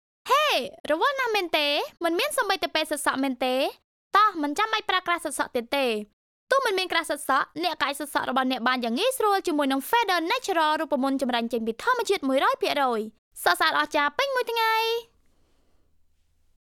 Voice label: TVC; Cambodia